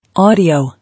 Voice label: "Audio", English